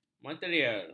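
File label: "material", Malay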